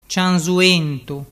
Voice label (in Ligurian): "ciansuentu", dialettu